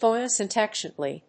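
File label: "photosynthetically", English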